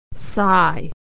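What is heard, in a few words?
"sigh", American English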